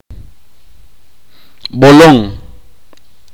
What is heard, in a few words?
"nueve", itza